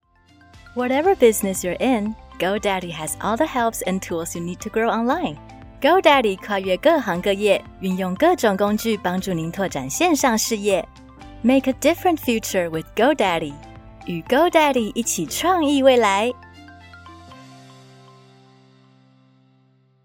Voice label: corporate; female voice in Mandarin Chinese